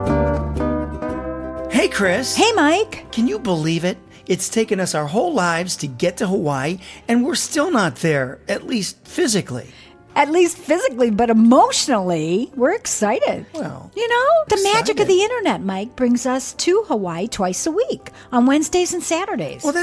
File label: radio promo